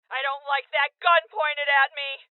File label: Voices Hostage Taken Female; Rebel Cops